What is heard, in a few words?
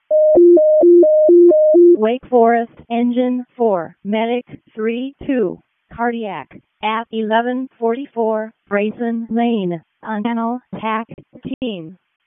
EMS ALERT; wake